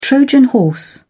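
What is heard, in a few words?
"trojan horse", British English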